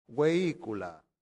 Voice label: "vehicula", latin; santillana